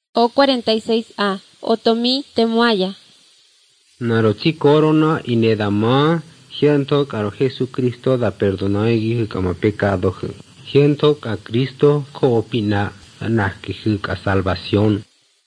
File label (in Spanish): spanish mexico